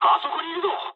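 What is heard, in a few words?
Japanese enemy detected mood low; War Thunder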